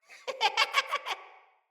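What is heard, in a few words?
Idle Corrupted Sprouts, en; Dialog; Battle Barks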